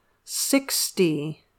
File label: "sixty", English